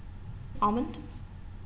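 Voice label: "almond", American, female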